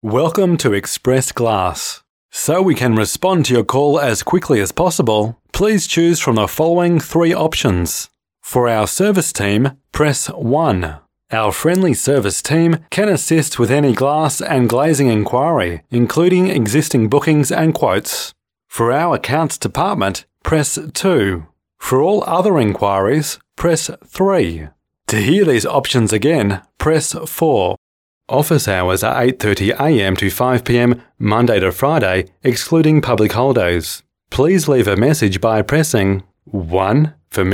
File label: ivr